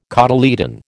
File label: "cotyledon", American